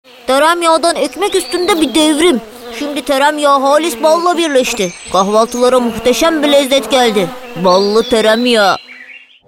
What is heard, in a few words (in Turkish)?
animatik karakter, seslendirme